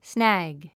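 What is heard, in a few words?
"snag", English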